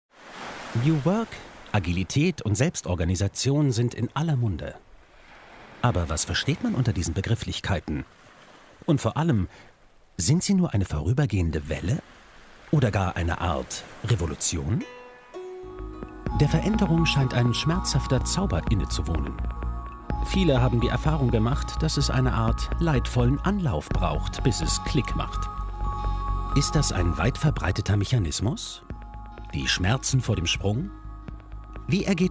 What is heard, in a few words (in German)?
Imagefilm; CHANGELAND